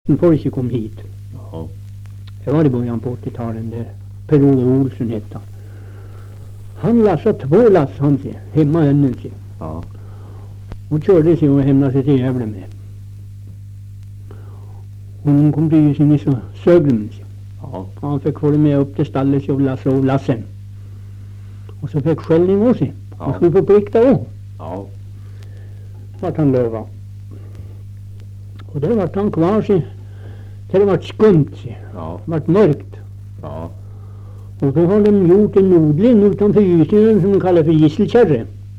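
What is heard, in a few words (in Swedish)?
Österförnebo Gästrikland, Svenska sagor, dialekter; Folkminnen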